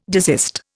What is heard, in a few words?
"desist", Indian